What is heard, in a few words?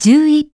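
"ten one", English; iOS 4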